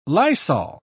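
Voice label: "lysol", English, British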